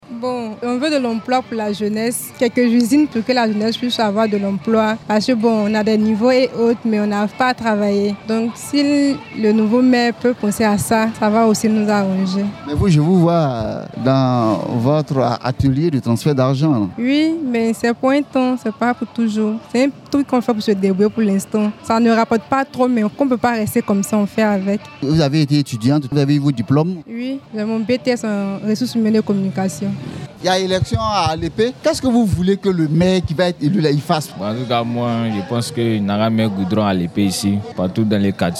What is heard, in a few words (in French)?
vox pop, attentes des populations; alepe; campagne electorale